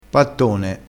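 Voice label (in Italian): "pattone", dialetto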